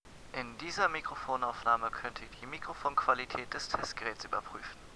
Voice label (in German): Mikroaufnahme; EpicGear ThunderouZ